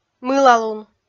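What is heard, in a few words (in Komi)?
"мылалун", Komi, female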